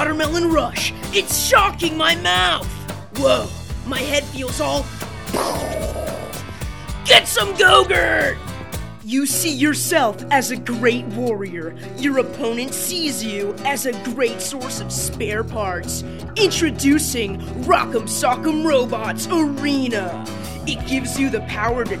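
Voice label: voice over with music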